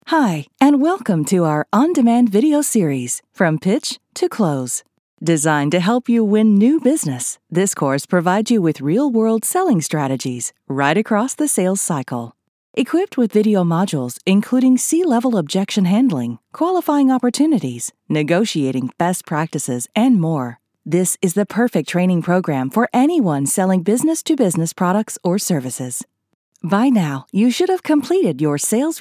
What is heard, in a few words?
english american e-learning